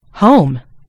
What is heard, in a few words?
"home", en GB; gcompris